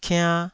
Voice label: "kiann2", taiwanese syllables